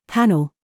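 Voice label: TRAP, words, British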